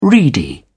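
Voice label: "reedy", English, British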